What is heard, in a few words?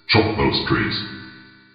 order tree cutting, minions; mod minions